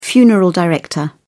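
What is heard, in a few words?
"funeral director", British English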